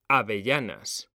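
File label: "avellanas", Spanish, male; mekanta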